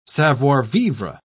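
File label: "savoir-vivre", English, British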